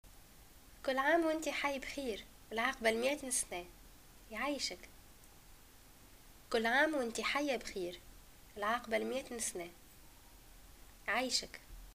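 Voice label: greeting happy birthday, Tunisian Arabic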